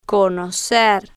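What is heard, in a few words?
"conocer", Spanish, female, slow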